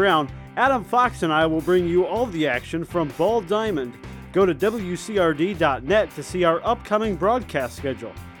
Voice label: baseball promo